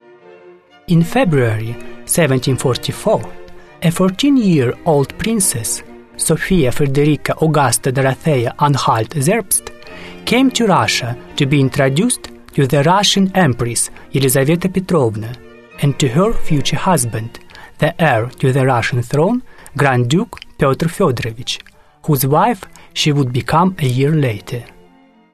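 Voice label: Russian, English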